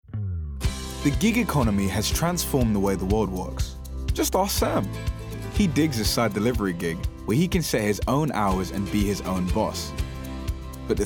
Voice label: corporate montage

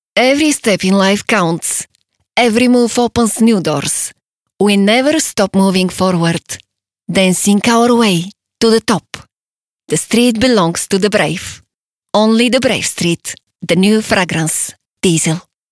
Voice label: commercial english

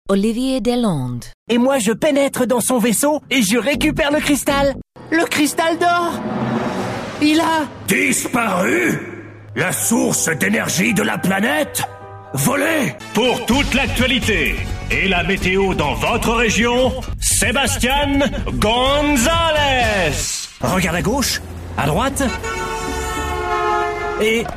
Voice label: french character

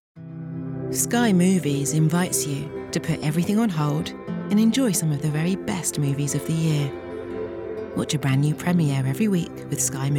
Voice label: commercial montage

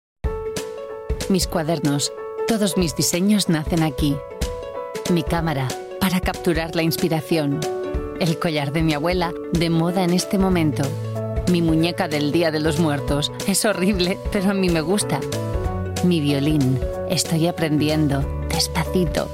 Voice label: spanish comm

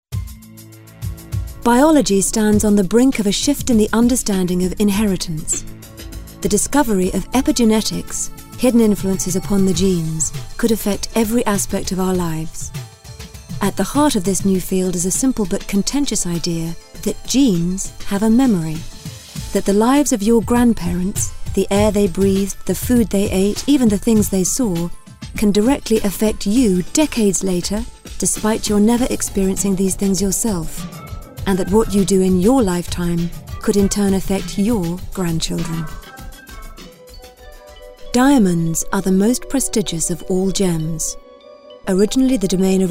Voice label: narr

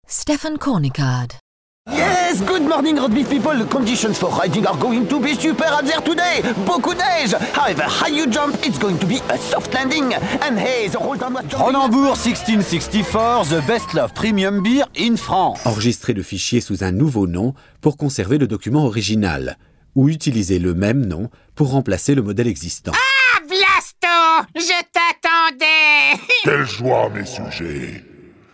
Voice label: french eng

